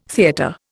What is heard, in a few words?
"theatre", Indian